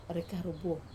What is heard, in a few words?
"re karu buo", tear, Wamesa